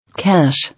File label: "Cash", English